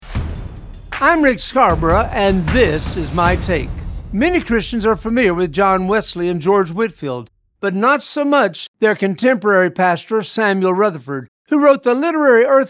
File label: Radio Spot; LexRex